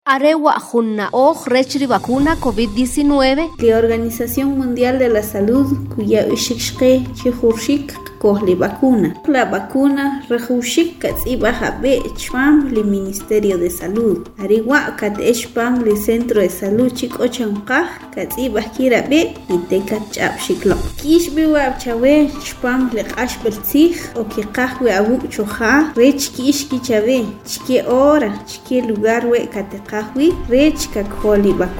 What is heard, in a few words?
SPOT KICHE